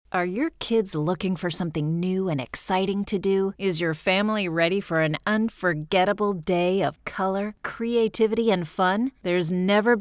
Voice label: english american promos